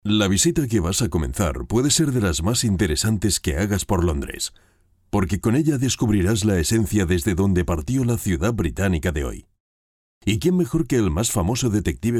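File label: European Spanish Audioguides; GoLocalise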